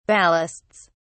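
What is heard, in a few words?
"ballasts", British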